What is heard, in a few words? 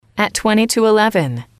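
"at twenty to eleven", English, female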